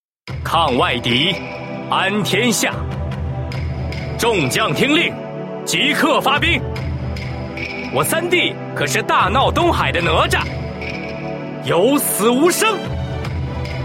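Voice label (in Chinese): qingnian, 青年男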